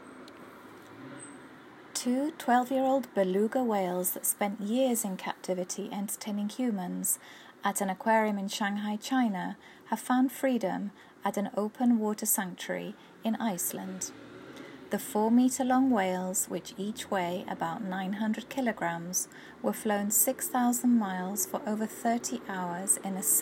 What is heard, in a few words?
News British